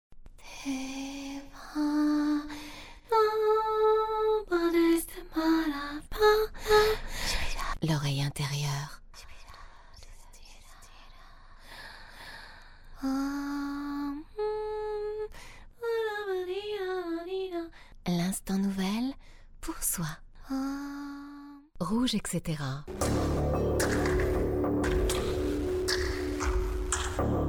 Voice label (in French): livre audio; Rouge etc début